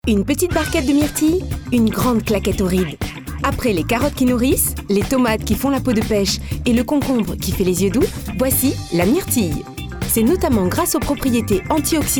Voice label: female french shop comercial; ideasonora

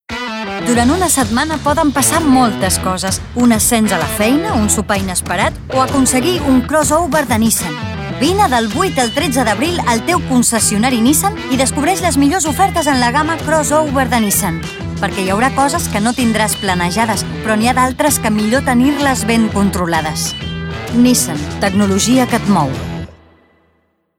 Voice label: female catalan comercial; ideasonora

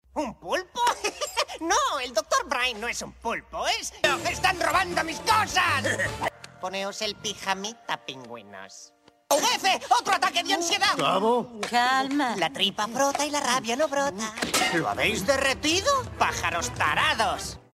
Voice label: male spanish cartoon comercial; ideasonora

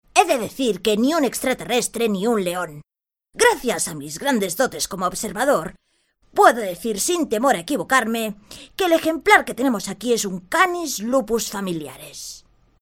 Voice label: female spanish kid comercial; ideasonora

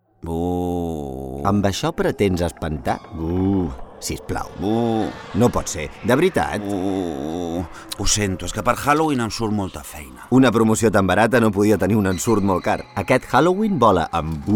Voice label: male catalan tv comercial

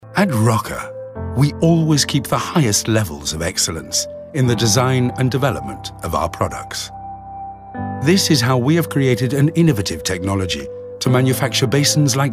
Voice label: male british english water comercial; ideasonora

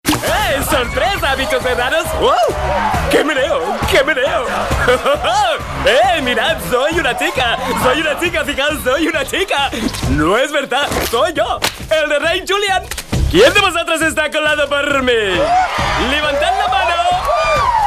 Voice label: male spanish cartoon comercial; ideasonora

